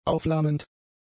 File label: "aufflammend", German; adjectives